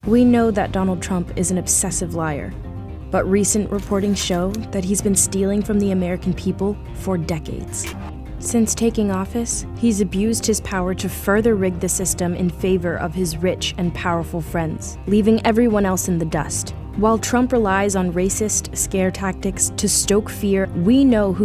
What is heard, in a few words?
political women